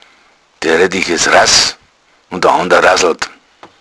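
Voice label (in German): "rass", Bavarian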